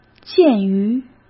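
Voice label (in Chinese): "鉴于", Mandarin Chinese; hsk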